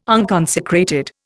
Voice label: "unconsecrated", Indian